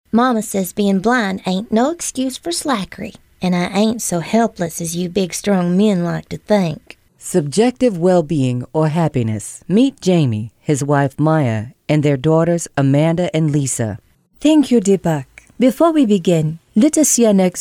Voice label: Accent and Dialect